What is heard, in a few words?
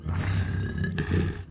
uruk hai neutral; minetest